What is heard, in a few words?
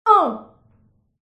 human female diver wound